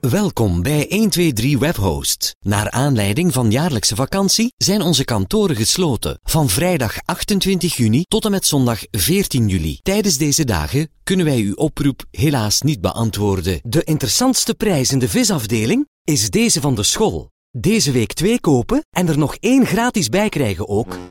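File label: Flemish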